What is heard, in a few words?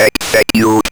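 ROBVOX, robot voice; E-Lab; X Static Goldmine 1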